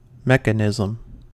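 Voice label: "mechanism", US English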